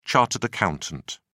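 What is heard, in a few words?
"chartered accountant", British English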